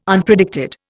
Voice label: "Unpredicted", Indian

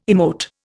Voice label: "emote", Indian

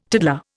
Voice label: "tiddler", Indian

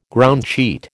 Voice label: "groundsheet", American

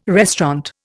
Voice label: "restaurant", Indian

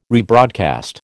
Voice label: "rebroadcast", American

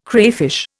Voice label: "crayfish", Indian

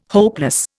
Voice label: "hopeless", Indian

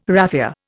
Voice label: "raffia", Indian

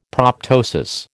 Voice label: "proptosis", American

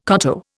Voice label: "koto", Indian

